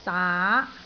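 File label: "daa2", Cantonese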